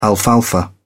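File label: "alfalfa", British English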